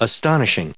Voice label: "astonishing", us